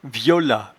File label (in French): "violat", patois jura